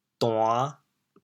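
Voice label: "duan5", Teochew, chaoyang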